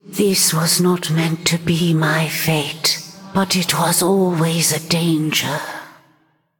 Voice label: Female Ghost Picked; en